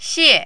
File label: "xie4", mandarin